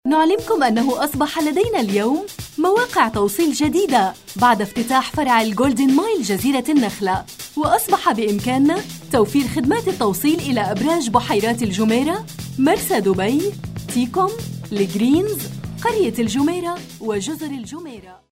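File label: Arabic, female, commercial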